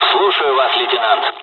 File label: dialogs russian; War Thunder